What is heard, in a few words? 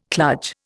"kludge", Indian